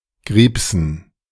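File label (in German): "Griebsen", German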